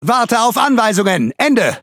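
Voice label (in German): Speech Pilots Fighter At position, DE; World Of Warships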